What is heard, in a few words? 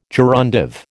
"gerundive", American